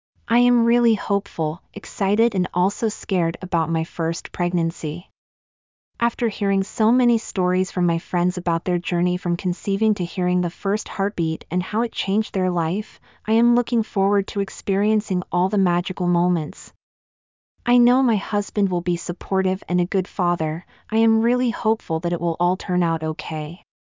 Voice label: jane hopeful